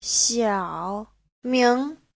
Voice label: "xiao3 ming2", Chinese